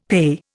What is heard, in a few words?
"PAYE", Indian; Dictionary